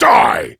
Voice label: Angry; Warframe; Tyl Regor Boss; Efforts